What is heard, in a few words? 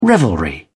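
"revelry", British English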